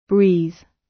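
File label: "breathe", British English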